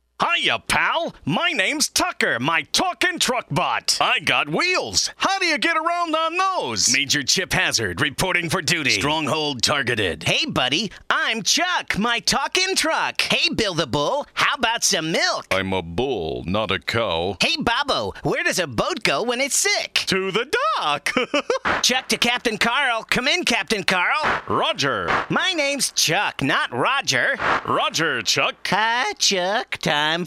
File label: Toy Voices; Hasbro